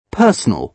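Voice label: "personal", British English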